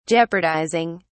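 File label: "jeopardizing", British English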